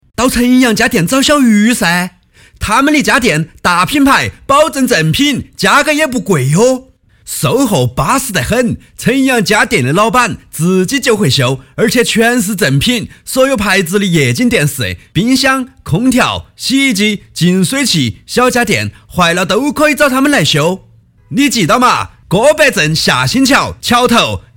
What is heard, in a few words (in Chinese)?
男 方言 家电男声